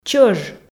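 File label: "чӧж" Komi, female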